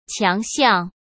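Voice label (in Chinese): "强项", Mandarin Chinese; hsk; chinese-flashcards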